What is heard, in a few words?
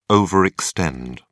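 "overextend", British English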